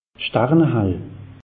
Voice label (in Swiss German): "starne hall", alsace; meteo